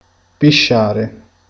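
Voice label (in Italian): "orinare", Italian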